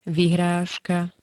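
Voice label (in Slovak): "vyhrazka", Slovak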